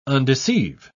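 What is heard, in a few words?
"undeceive", British English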